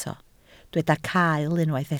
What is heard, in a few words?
"cael", Welsh